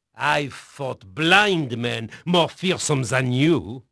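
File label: French male, voice; Pirates Of The Caribbean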